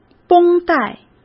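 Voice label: "绷带", Mandarin Chinese; chinese-flashcards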